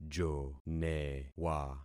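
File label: "jonewa", concatenated syllables; Affixing experiment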